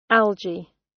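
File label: "algae" British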